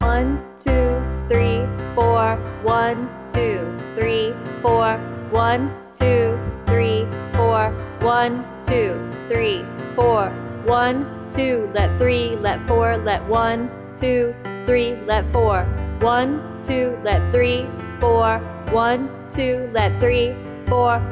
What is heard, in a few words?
Easy Pop Rock spoken; Rhythm Bootcamp